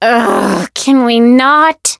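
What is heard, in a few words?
Voice encounter fear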